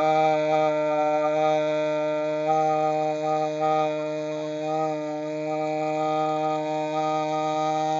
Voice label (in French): "aaa", Voyelles; TEACH